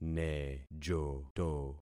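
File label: "nejoto", concatenated syllables; Affixing experiment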